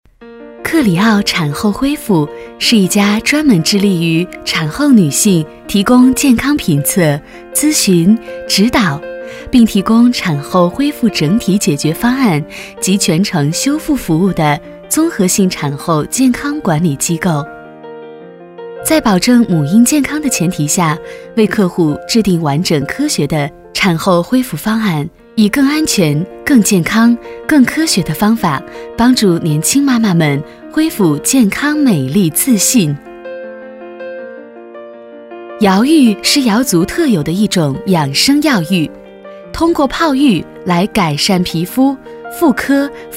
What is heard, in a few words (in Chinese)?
女 店铺宣传 温馨柔美; 产后管理机构; 温和抒情